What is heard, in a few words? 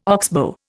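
"oxbow", Indian English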